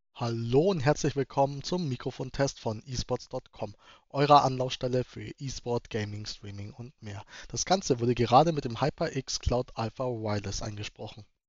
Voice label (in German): HyperX Cloud Alpha Wireless Mikrofonaufnahme